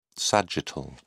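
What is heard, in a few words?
"sagittal", British English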